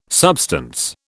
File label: "substance", British